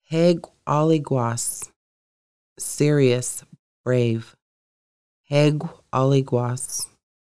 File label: serious brave, Lushootseed